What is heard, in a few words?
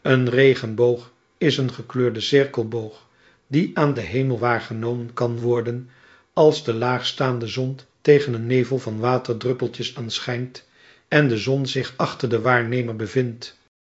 speaker, nl NL